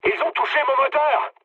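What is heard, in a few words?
French damaged engine mood med; War Thunder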